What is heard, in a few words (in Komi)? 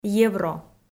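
"евро", Komi, female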